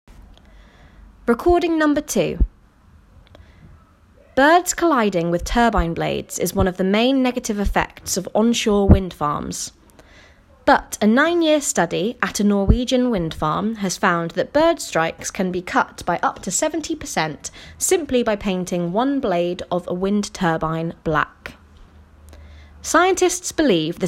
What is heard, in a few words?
News British